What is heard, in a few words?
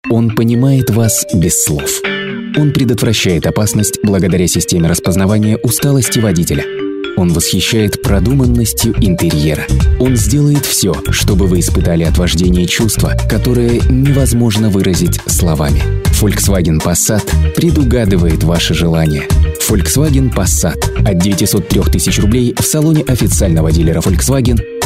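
Russian commercial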